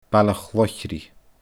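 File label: "Baile Chloichridh", Scottish Gaelic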